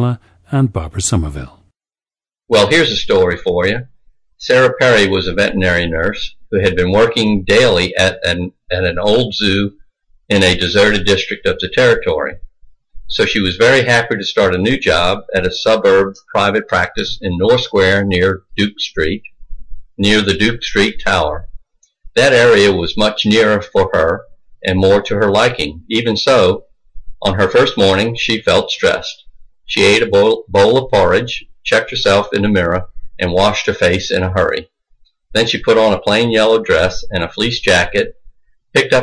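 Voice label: louisiana, International Dialects of English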